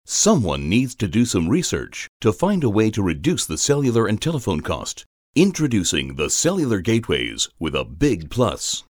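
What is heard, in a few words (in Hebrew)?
English, קריינות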